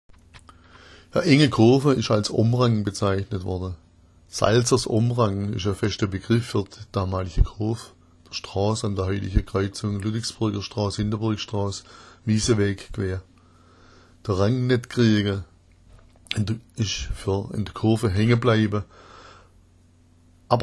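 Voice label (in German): omrang, schwäbisch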